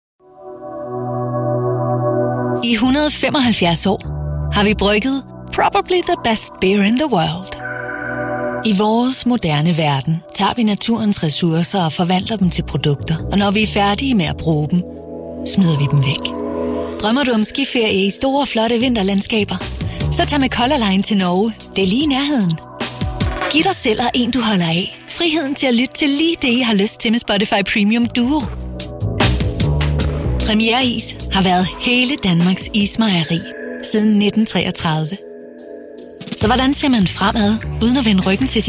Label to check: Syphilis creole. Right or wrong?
Wrong